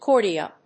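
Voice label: "cordia", English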